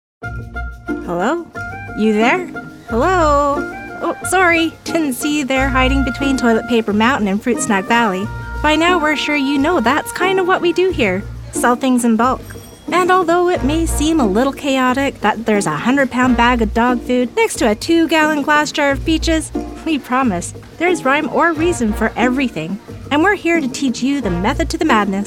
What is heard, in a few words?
E LEARN; Canadian voice actor; bright, conversational reads